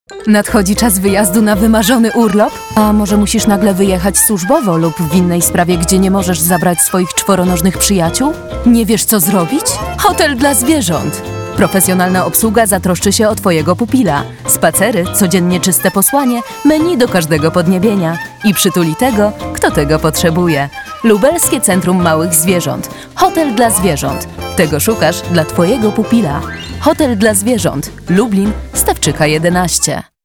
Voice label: Female VO Commercial